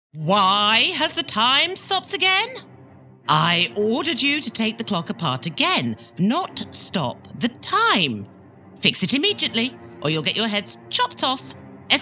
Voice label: animation

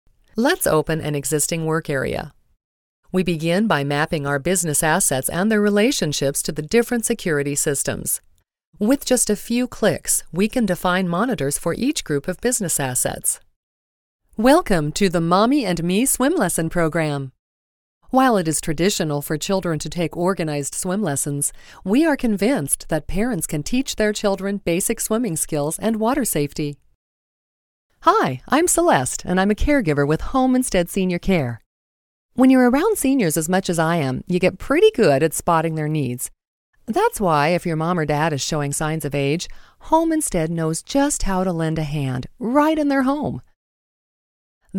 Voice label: Explainer Demo

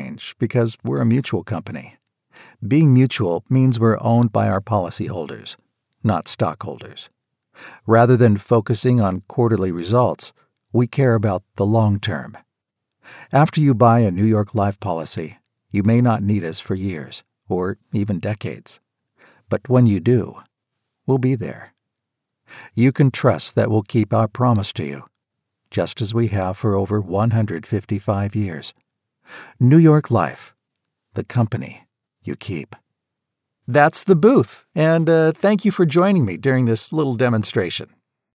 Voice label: Dry Read

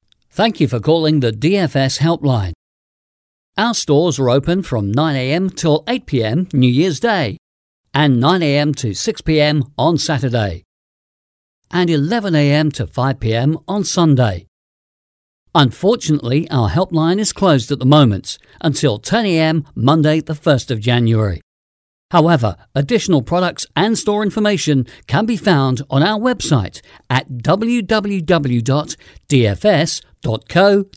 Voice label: on hold sample dry

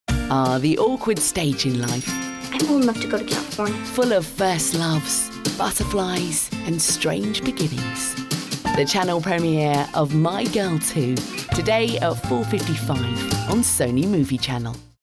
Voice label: Movie Channel Promo; Sony Movie Channel

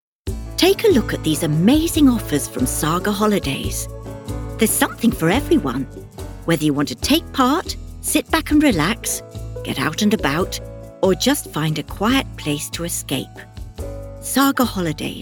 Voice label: Commercial voice

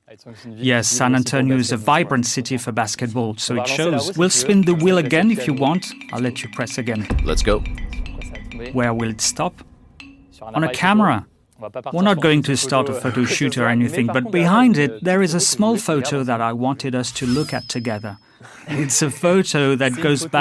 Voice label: Surimpression vocale voice over, English, Male

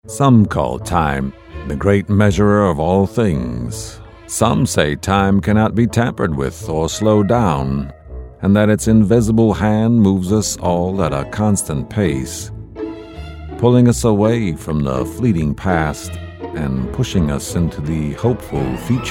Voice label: NARRATION Voice Over

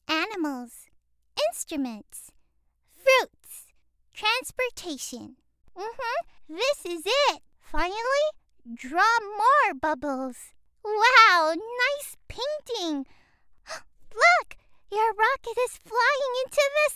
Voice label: elearning sample

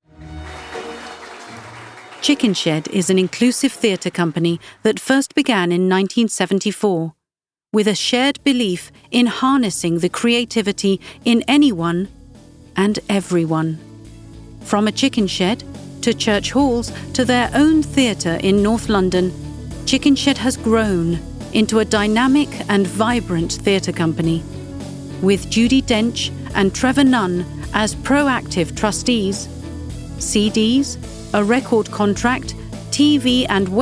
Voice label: explainer Drama

